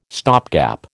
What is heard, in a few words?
"stopgap", American